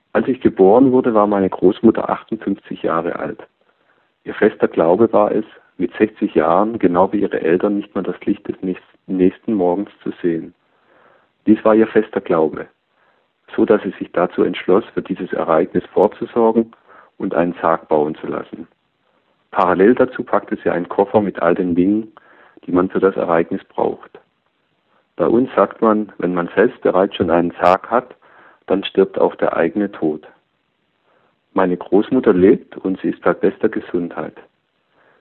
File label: Voicemail